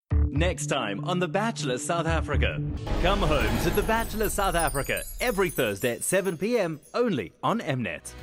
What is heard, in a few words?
english south african tv shows